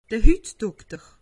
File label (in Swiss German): "hutdokter", alsace; medecine